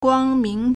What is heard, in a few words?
"guang1ming2", Chinese